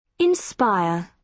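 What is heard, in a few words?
"inspire", British English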